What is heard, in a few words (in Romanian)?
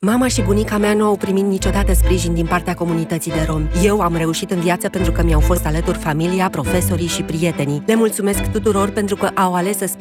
Spot radio